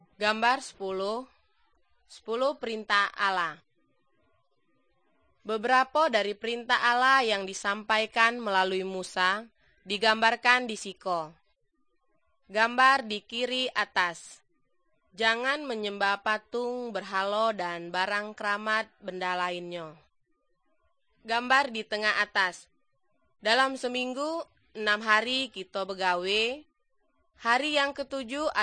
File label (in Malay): good news malay jambi